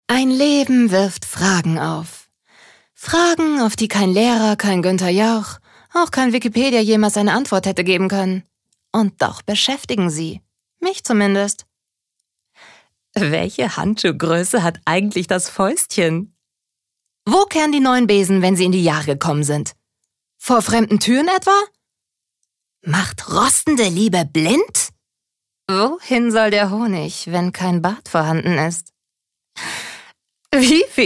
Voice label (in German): Schauspiel Variabel